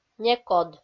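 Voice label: "некод", Komi-Zyrian, female; kpv audio dict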